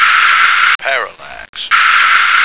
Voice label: "parallax", American